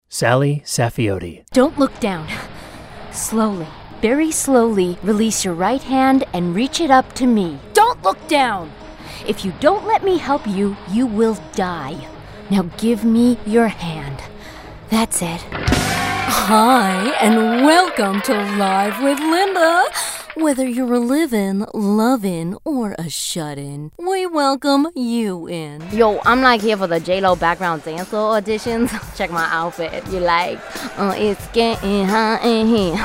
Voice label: animation